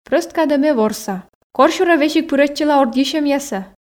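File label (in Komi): прӧст кадӧ ме ворса. Корсюрӧ, Komi, female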